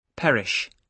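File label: "perish", British English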